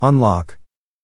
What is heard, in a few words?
Male Unlock Serious Voice